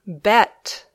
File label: "bet", English